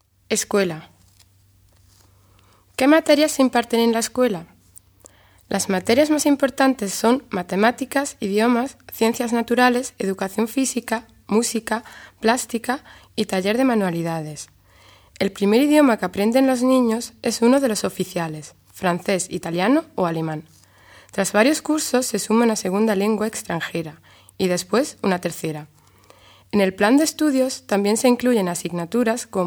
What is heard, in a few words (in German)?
schule spanisch